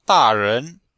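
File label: "da4ren2", Mandarin Chinese; Cours de Chinois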